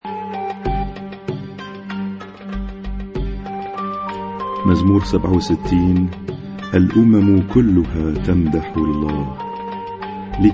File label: Arabic Psalm Zaboor